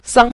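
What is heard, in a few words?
"sang", Mandarin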